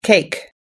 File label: "cake", US English